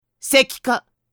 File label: voice, dubbing